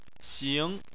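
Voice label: "xing", Mandarin Chinese, second tone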